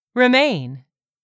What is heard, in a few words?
"REMAIN", english; 3000 words